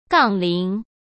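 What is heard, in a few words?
"杠铃", Mandarin Chinese; hsk; chinese-flashcards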